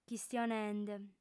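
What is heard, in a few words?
"chistionende", female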